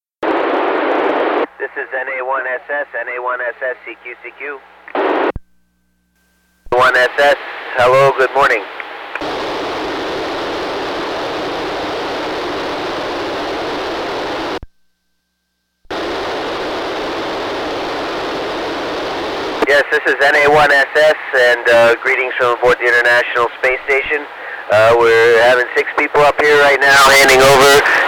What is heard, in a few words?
ISS Pass, Ham Radio; GTMO